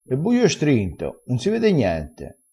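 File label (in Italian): "strinto", dialetto crespinese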